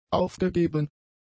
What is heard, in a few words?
"aufgegeben", German; adjectives